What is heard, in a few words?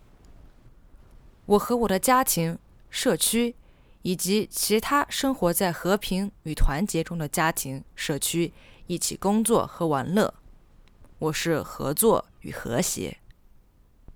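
I Am Cooperation and Harmony Chinese Female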